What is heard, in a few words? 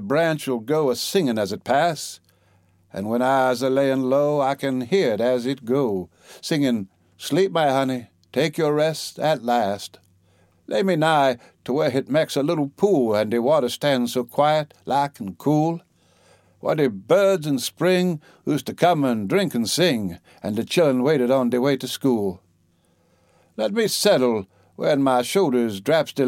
a death song, humour and dialect